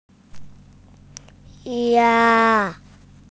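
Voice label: "jia1", Mandarin Chinese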